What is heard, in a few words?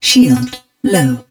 HUD Shield Low, english; Battle Engine Aquila; XBOX